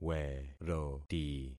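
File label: "weroti", concatenated syllables; Affixing experiment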